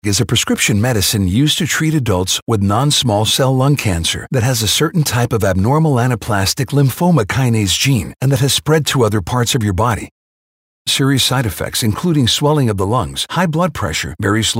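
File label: Medical, voice-over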